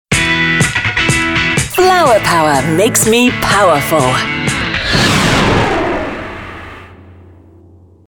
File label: station ids; flowerpower